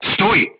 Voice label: voice message short stop, crew tanks polish